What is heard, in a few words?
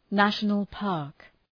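"national park", English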